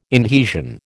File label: "inhesion", American